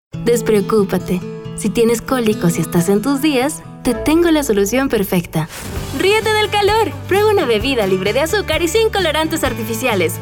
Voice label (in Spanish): Institucional